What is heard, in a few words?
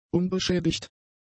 "unbeschädigt", German; adjectives